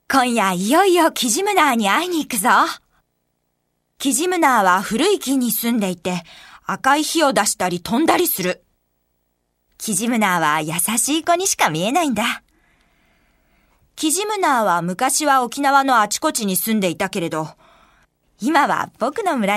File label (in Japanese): 少年ナレ